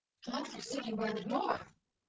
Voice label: calm surprised generated, speech; GAN for speech spectrogram